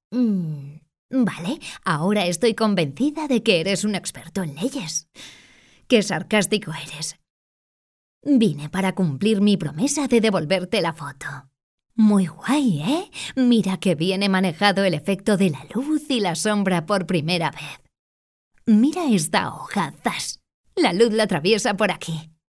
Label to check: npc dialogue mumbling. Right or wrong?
Wrong